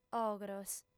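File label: "ogros", female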